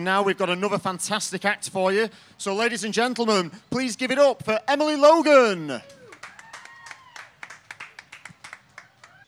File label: Intro, Radio; Earlestown Christmas Lights Switch On 2018; WA12 Radio